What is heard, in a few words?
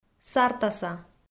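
"сартаса", Komi, female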